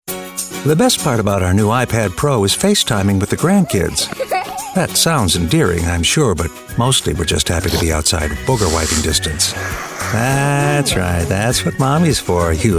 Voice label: Comml